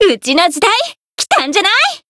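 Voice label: Voice variable chant, Japanese; millecha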